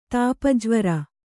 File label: "ತಾಪಜ್ವರ", Kannada; tāpa jvara; noun